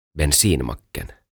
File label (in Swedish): "Bensinmacken" Huoltoasema; OTAVA KONSERNI